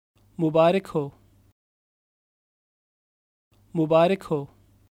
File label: Congratulations Urdu